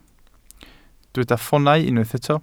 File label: "ffoonau", Welsh